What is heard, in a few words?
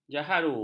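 "jaharu", Malay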